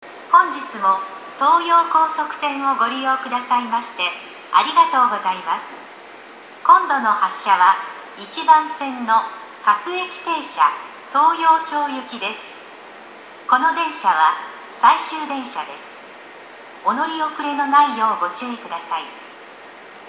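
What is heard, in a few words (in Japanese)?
駅放送, 1番線 終到着; 東陽町行平日最終電車（1番線扱い）の放送です。; toyo-katsutadai; 終連絡 -- 東陽町行平日最終電車（1番線扱い）の放送です。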